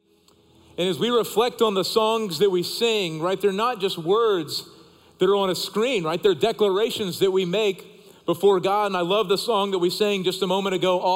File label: Sermon English